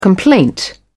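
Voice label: "complaint", British English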